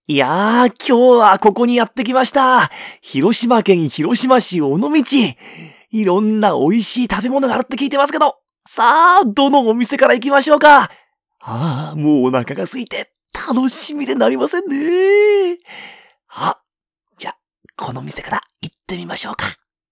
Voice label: male